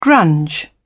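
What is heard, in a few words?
"grunge", British English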